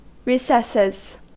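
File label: "recesses", American; pronunciations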